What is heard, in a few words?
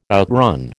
"outrun", American